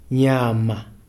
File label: "meat", Kalanga